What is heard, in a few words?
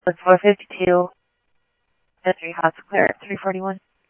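Mesa police department, scanner audio trunk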